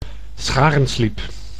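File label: "scharensliep", Dutch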